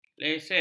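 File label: "leset", Malay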